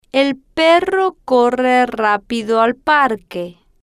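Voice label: el perro corre rapido al parque, Spanish, female, slow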